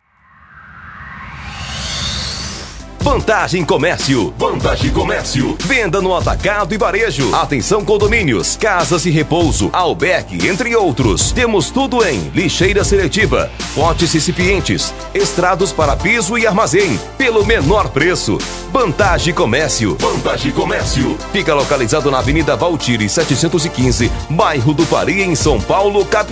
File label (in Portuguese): spot comercio; vantage